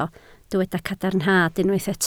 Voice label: "cadarnhad", Welsh